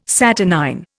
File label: "saturnine", Indian